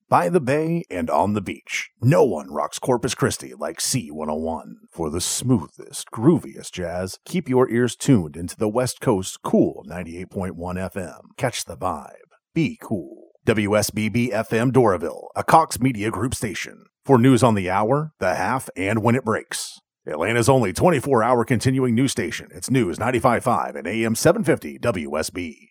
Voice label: tv radio imaging